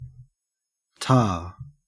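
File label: "tar", Australian English; pronunciation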